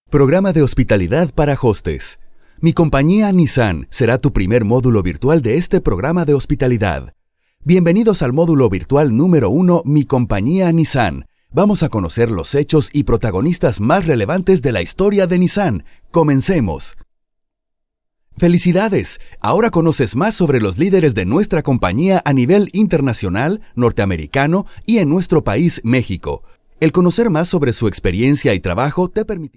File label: voice actor; VoiceBunny; Español - México - Hombre